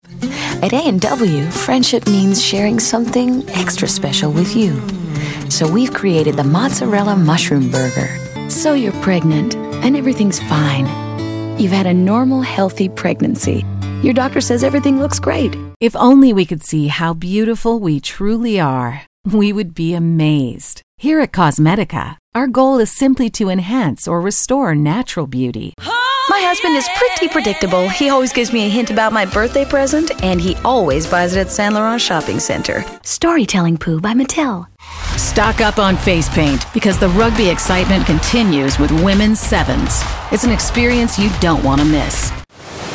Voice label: english american commercial; Canada